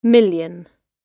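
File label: "million", British English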